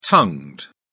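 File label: "tongued", British English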